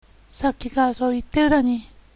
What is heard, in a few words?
"dani", dialect